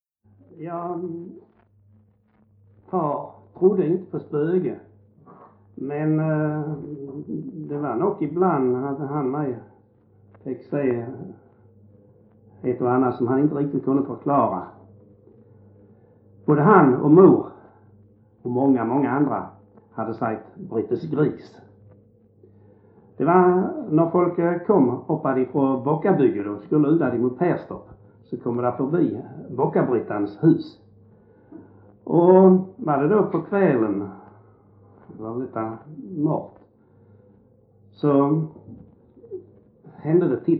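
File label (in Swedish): Ränneslöv Halland, dialekter; Folkminnen; Svenska sagor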